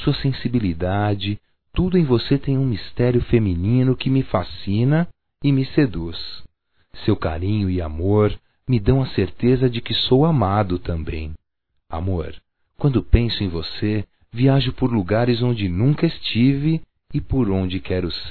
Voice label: DIA INTER DA MULHER ROM. M, Só Voz, masc; Telemensagens; Telemensagens 2017

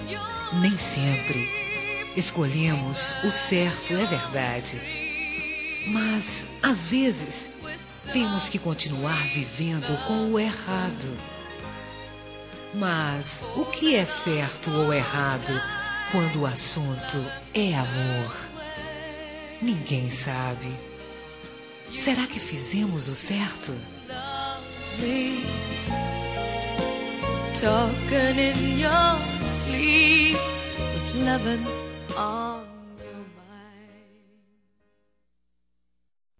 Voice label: Para Ex Feminino, Românticas; Telemensagens

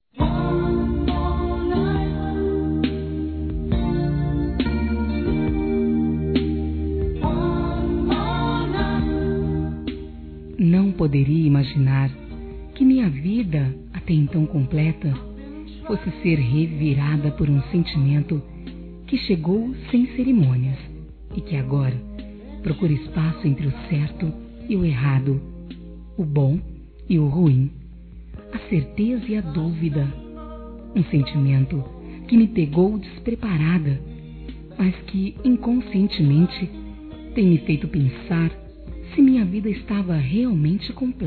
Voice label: ANIV namorado recente f; Aniversários; ANIVERSARIO romantico; fem

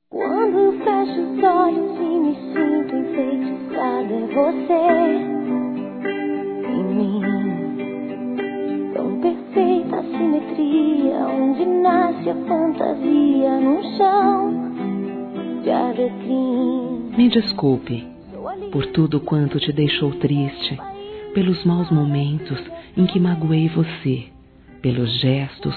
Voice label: Desculpas Neutra - Femin; Telemensagens; Telemensagens 2017

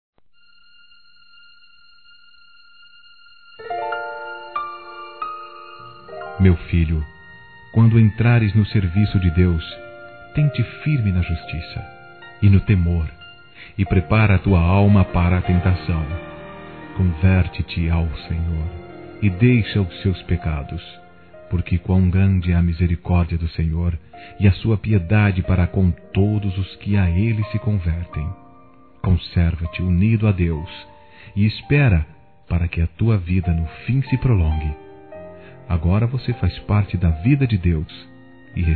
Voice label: Trilha NOVO CONVERTIDO M, Telemensagens Gospel masc

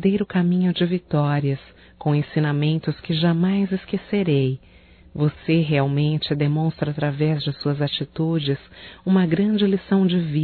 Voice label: Aniversário Professor Feminino; Aniversarios; Telemensagens; Telemensagens 2017

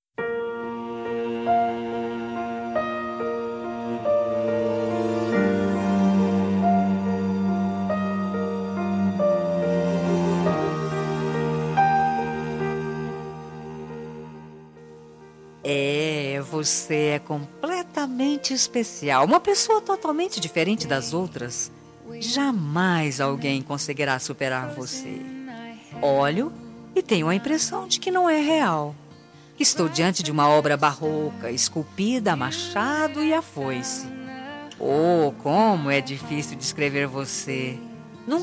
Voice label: DESCULPAS AMIZADE-F; Telemensagens; fem; Telemensagens 2017

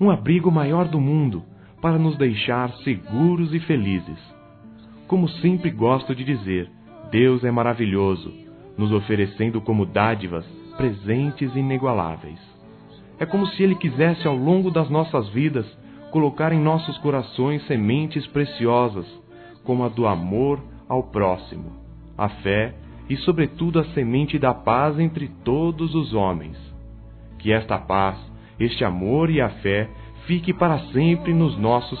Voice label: ENCONTREI UM AMIGO(A), Amizade Masc; Telemensagens

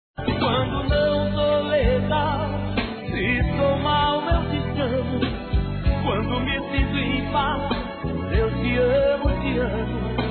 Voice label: amizade colorida, Telemensagens, Masc

